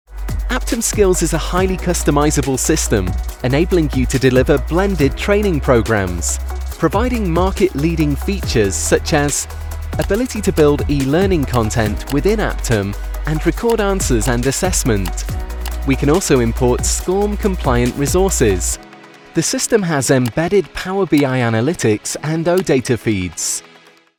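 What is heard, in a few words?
Southern English upbeat, Male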